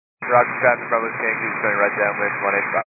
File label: 122 725MHz, scanner radio